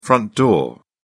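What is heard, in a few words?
"front door", British English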